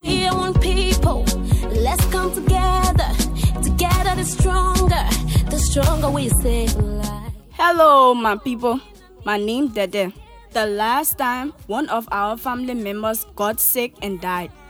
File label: body swab spot